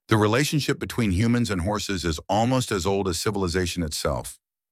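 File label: British male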